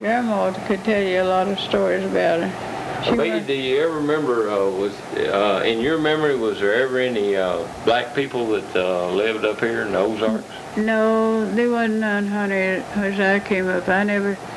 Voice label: Conversation with 91 year old male and 77 year old female Arkansas, american english dialect